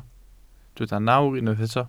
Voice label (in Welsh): "nawr", Welsh